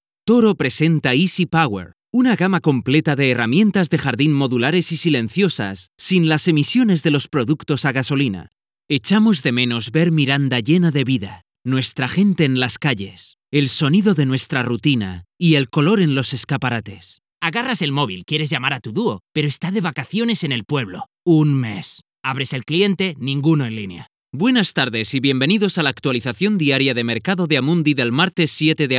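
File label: ESEU General, Spanish (Spain); GoLocalise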